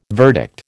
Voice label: "verdict", American